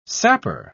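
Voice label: "sapper", British English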